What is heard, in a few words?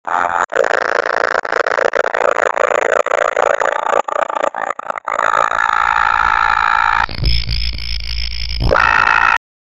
ai talking sound effect